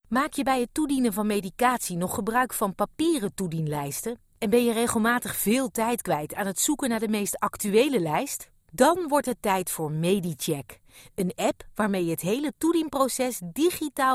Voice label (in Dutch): algemeen, voice over